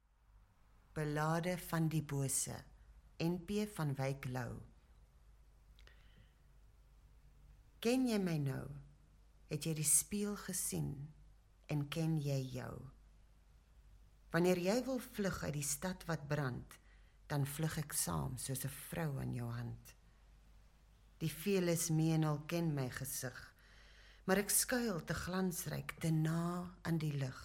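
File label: AF Narrative, Afrikaans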